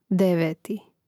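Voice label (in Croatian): "deveti", Hrvatski; mreznik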